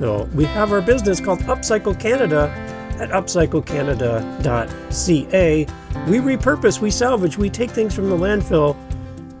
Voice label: Podcast Promo; UpCycle Canada